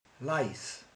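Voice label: "halk", Hungarian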